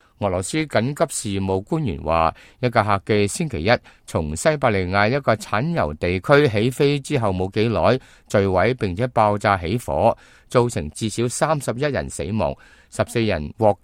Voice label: cantonese, news